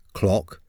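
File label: "clock", English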